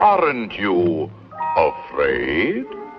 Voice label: aren't you afraid british bloke

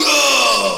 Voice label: yuh male; ooh ahh; human

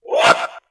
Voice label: "woop", voice

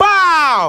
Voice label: "bow", Voice

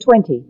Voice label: "twenty", female; Voice; numbers and letters

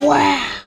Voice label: "wow" kid; ooh ahh

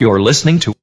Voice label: you're listening to male; Voice; introductions

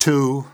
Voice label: "two" announcer; numbers and letters

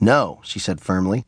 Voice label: "no" she said firmly; expletives

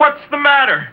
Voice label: what's the matter, male

